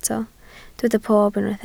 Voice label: "pob", Welsh